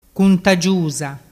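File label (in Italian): "cuntagiusa", dialect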